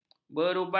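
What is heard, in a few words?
"berubat", Malay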